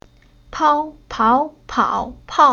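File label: "Pao", Chinese syllable; Уроки фонетического блока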